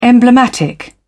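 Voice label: "emblematic", British English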